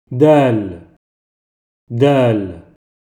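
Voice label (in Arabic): "Dāl", Arabic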